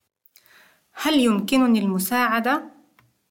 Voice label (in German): kann ich helfen, Arabisch; Interkultur; LearningApp